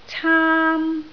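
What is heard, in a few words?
"caam1", Cantonese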